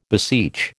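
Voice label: "beseech", American English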